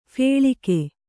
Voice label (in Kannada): "ಫಲ್ಗು", Kannada